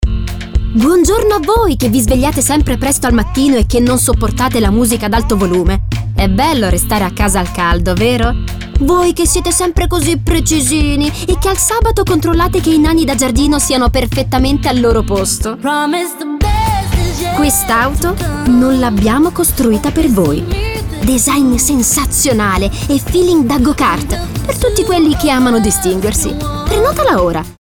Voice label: convincing natural